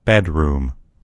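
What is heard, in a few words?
"bedroom", American English; gcompris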